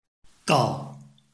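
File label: "gao", Chinese, tone 4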